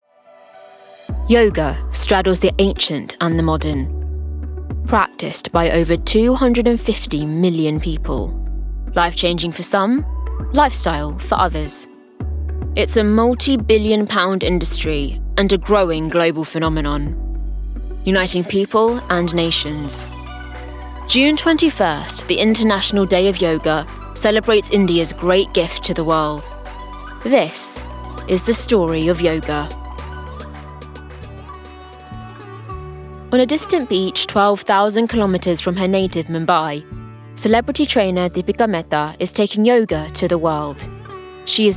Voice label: doco

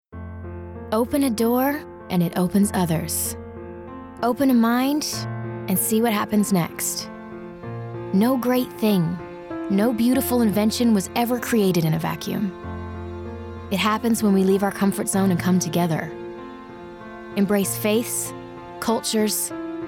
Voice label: COMMERCIAL; Apple

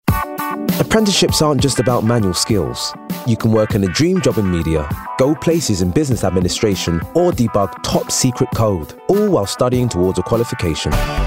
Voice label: Commercial; Voicereel

